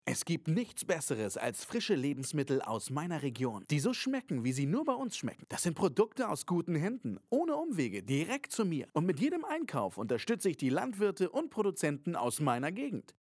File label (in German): Werbung Lebensmittel